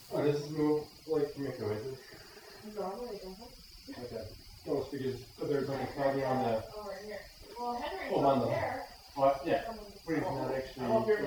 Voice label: possible whispering under voice; Salem's Haunted Barn EVP's

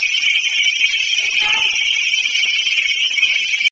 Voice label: unknown female voice; St Joseph Cemetery; Spirit Chasers of the Unknown; EVPS

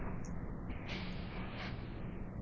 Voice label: Disembodied voice or sounds; EVP; Lower Michigan Parnormal Society

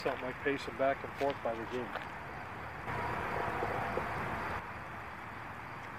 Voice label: talking possible growl no response; Soop Cemetery 2018 EVPS; Lower Michigan Parnormal Society

